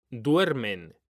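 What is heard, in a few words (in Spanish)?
"duermen", Spanish; mekanta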